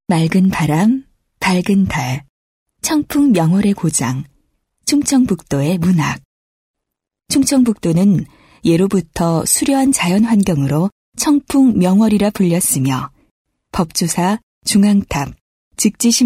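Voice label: Korean female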